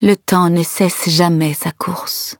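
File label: levelup, French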